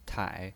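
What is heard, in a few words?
"tai", Mandarin Chinese pinyin, third tone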